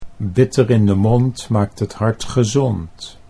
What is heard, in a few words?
bitter in de mond maakt, Dutch; Bitter in de mond maakt het hart gezond; 'bitter in the mouth makes the heart healthy'